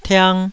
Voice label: "tiang2", Taiwanese